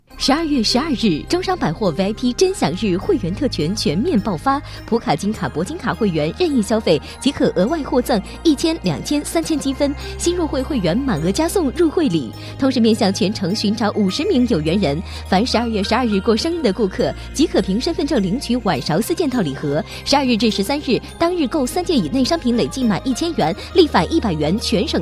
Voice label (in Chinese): 广告 促销 会员 欢快